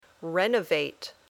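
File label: "renovate", English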